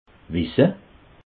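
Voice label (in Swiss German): "wisse", Alsatian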